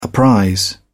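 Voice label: "apprise", British English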